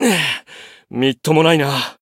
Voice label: win barely, jp